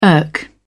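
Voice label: "irk", British English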